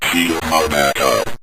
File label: shields Are Back Up, Synth Voice; Kenney's Sound Pack